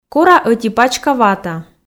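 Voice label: "кора ӧти пачка вата", Komi, female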